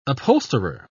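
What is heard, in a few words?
"upholsterer", British English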